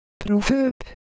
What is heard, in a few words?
Semai Shape; ideophones